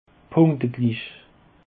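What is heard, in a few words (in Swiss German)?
"punkteglich", Alsatian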